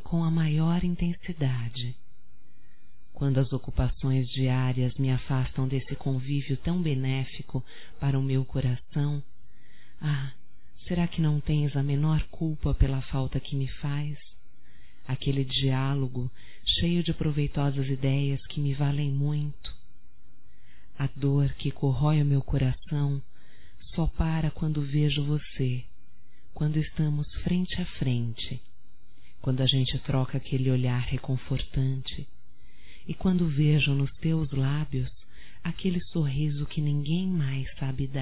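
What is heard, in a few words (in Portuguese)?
voz, Saudades Romântica, female, Telemensagens; Telemensagens 2017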